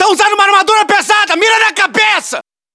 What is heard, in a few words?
hostile burst, battlechatter